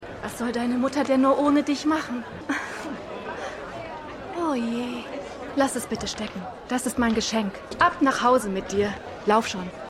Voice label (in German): Korean Seetangverkaeuferin; Pachinko